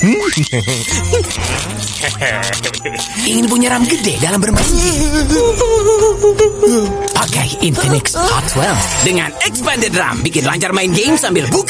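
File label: Bahasa Indonesia Character Voice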